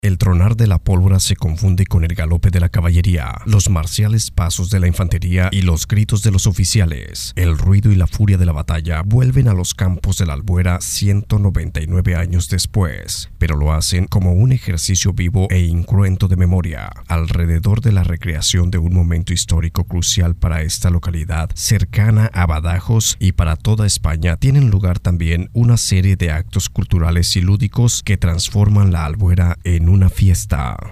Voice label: locutor mexicano mexican voiceovers